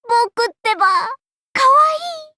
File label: cute; fgo; astolfo